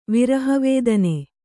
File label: "ವಿರಹವೇದನೆ", Kannada